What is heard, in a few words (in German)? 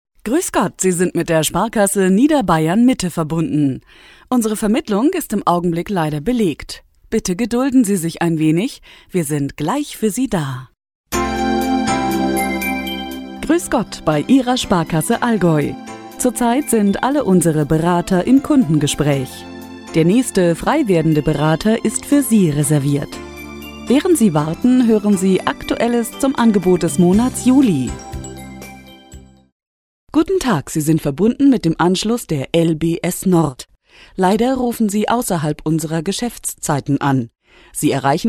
Telefonansagen